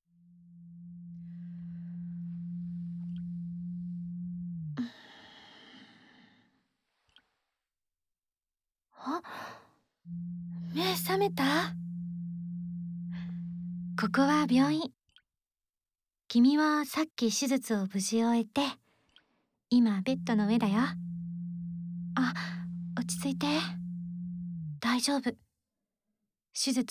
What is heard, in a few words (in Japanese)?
点滴・マッサージ機ASMR; ここがどこだかわかりますか?